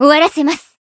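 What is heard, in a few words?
finish, Japanese; tsukiha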